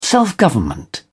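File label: "self-government", British English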